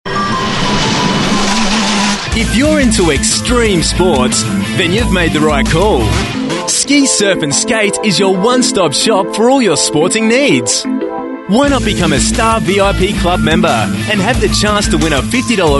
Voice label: Male Talent